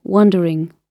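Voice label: "wondering", British English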